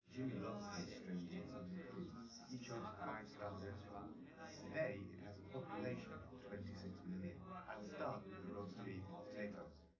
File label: male4 liverec 250ms 5cm sim